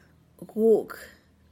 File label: "guug", turtledove, tlacochahuaya